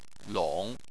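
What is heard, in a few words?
"long", Chinese, third tone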